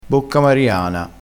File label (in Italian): "bocca mariana", crespinese